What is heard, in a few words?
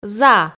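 "за", Komi, female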